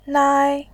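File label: "nai", Mandarin, tone 1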